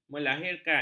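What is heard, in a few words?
"melahirkan", Malay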